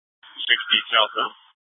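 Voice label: Scottsdale Police South Dispatch; Scttsdle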